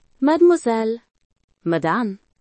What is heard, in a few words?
Miss in french